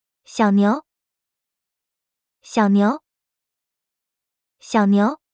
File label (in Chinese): "小牛", mandarin; Traditional Chinese